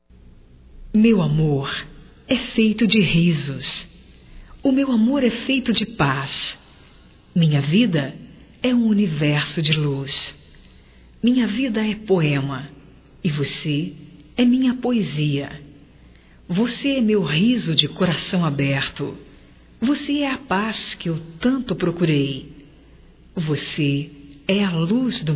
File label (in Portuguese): ROMANTICA GERAL, Românticas fem